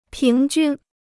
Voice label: "平均", Chinese